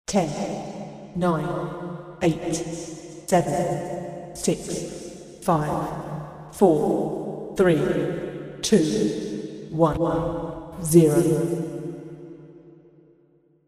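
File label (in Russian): обратный отсчет в материалах научной фантастики женский голос 10 0; Звуки обратного отсчета от 10 до 0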